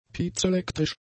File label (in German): "piezoelektrisch", German; adjectives